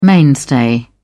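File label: "mainstay", British English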